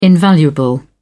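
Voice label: "invaluable", English, uk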